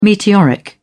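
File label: "meteoric", English, British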